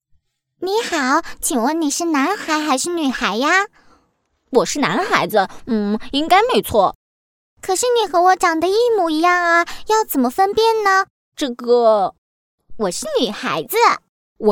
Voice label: 女 角色 童声 母亲

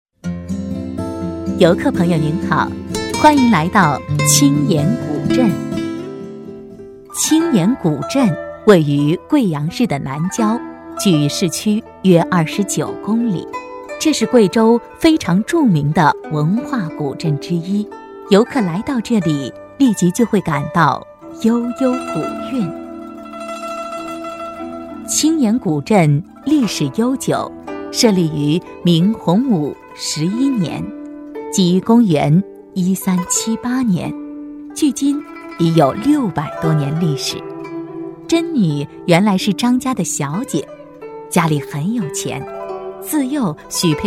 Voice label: 专题 风格 古典 悠扬, 国语女声配音; 青岩古镇

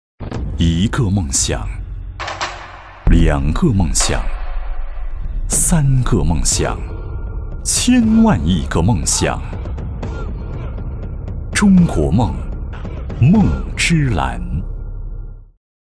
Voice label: 男-广告样片; 梦之蓝

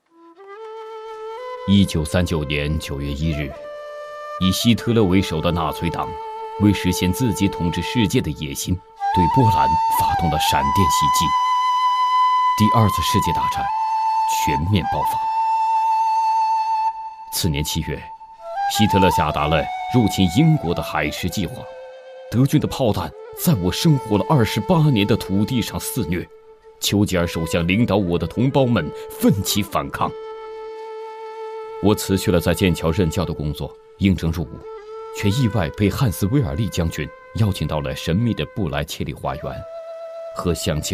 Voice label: 男 内心独白, 国语男声配音; 图灵

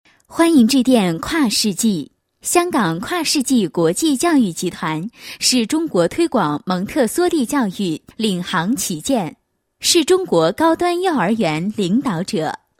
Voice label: 女 彩铃; 欢迎致电跨世纪

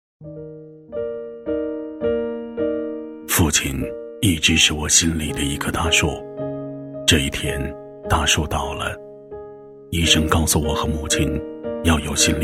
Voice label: 男25号 自白 低沉压抑, 国语男声配音; 父亲; 内心独白

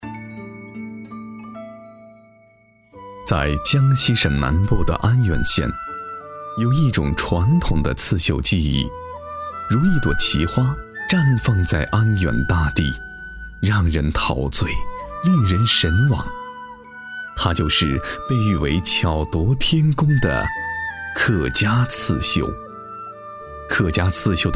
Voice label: 纪录片, 舌尖风; 客家刺绣

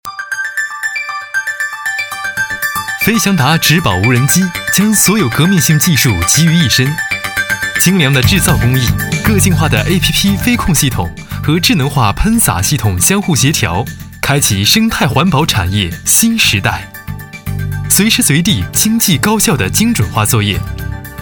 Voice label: 男, 品牌宣传, 植保无人机; 飞翔达

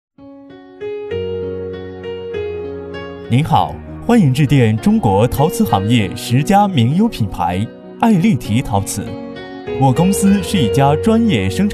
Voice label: 男 彩铃 陶瓷企业, 国语男声配音

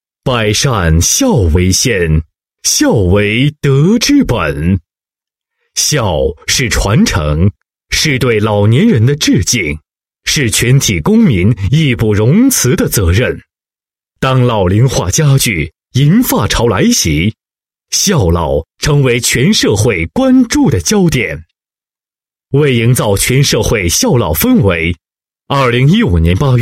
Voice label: 男 专题 全民敬老, 国语男声配音; 大气专题

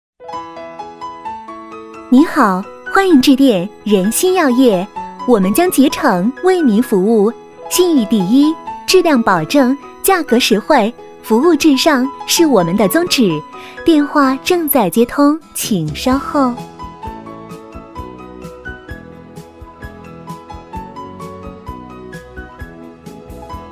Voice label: 女 彩铃, 国语女声配音, 磁性; 您好，欢迎致电仁心药业

